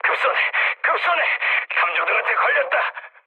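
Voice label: Korean searchlight mood low, speech; War Thunder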